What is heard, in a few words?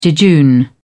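"jejune", British English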